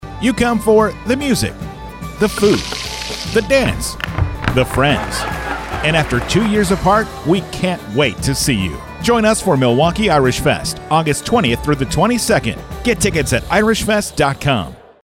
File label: Radio General Spot, IRISH